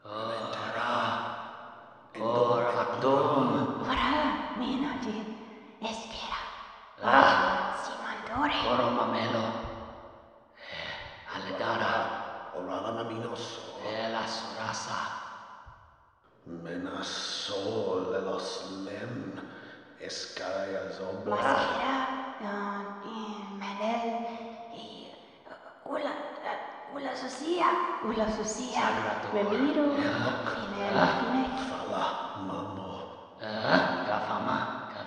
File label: whispers exterior strong reverb; Snails